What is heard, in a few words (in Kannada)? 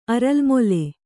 "ಅರಲ್ಮೊಲೆ", kannada